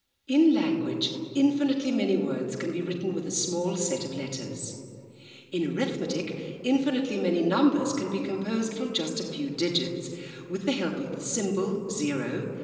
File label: speech large reference